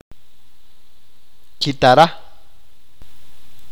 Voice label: "Guitar", achi